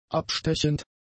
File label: "abstechend", German; adjectives